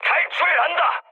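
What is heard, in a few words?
Korean bailout mood high, speech; War Thunder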